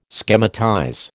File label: "schematize", American